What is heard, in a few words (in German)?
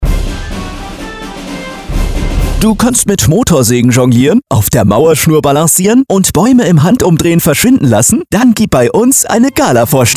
Funkspot Recruiting; rockland; gartenleben borchert